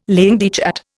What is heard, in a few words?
"langue de chat", Indian